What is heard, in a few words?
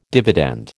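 "dividend", American English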